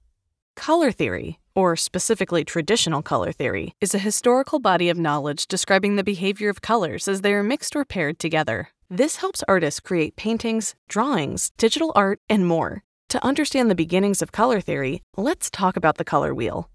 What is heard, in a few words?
Art eLearning Sample; elearning corporate training